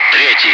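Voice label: Russian bright; Wingman; DCS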